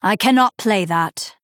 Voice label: Female Night Elf ERROR PLAY